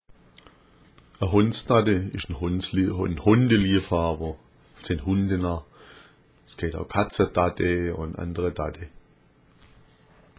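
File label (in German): dadde, schwäbisch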